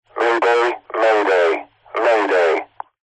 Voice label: vehicles airplane pilot radio chatter comms control tower says mayday